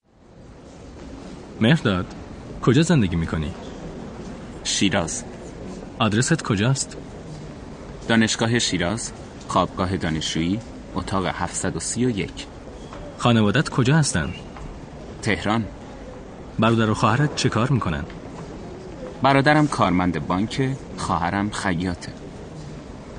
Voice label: Dialogue lesson Farsi